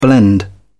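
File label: "blend", British English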